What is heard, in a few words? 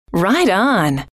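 right on, female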